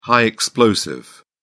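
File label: "high explosive", English, British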